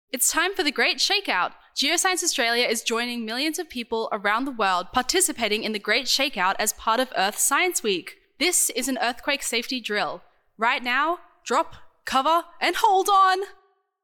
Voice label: female student; Great Shakeout Drill; Instructional drill audio; Geoscience Australia